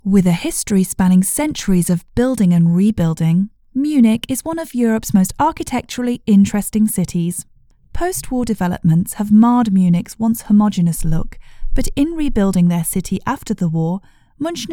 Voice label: english british documentaries